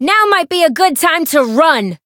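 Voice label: encounter fear; amy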